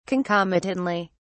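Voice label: "concomitantly", British English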